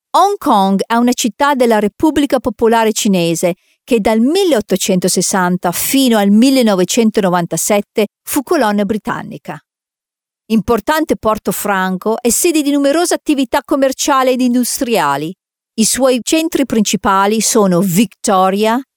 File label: Female Italian Other Projects